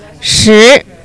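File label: "ten", Chinese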